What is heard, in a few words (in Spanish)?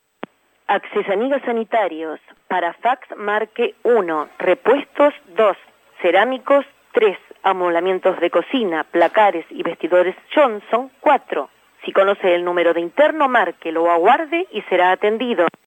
mensajes telefonicos